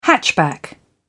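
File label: "hatchback", British English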